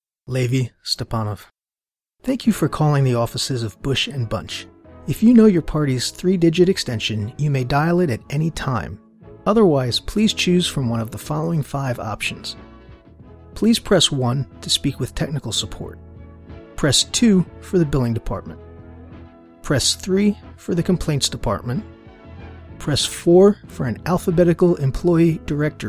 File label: telephony